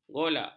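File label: "golak", Malay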